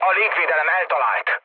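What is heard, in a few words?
Hungarian damaged aa mood low, speech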